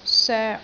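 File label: "soek3", Cantonese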